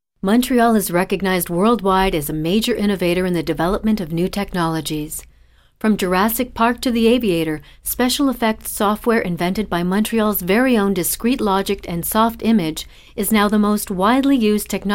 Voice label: Narration